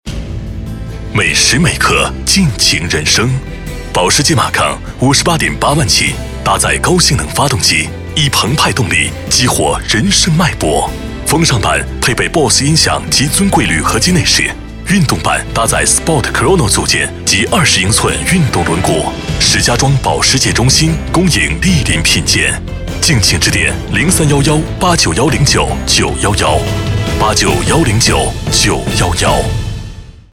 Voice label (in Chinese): 男60号 广告; 保时捷macan豪华SUV; 交广主播